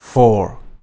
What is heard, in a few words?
"four", synth